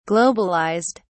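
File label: "globalized", British English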